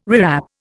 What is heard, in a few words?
"rewrap", Indian